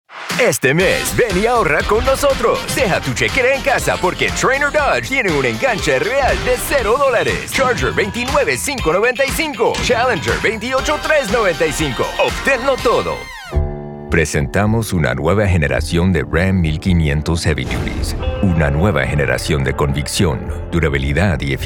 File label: spanish other automotive